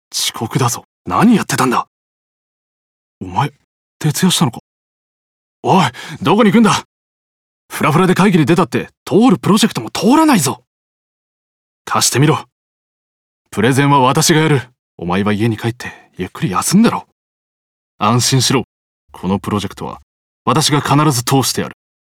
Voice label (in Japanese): 部下思いの上司, 山口弁, ボイスサンプル